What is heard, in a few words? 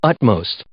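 "utmost", English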